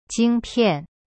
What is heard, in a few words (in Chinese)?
"晶片", Chinese; jing pian; cyberchinese